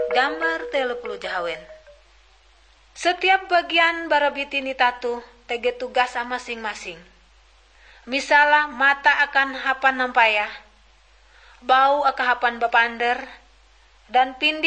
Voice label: kabar bahalap good news ngaju, drama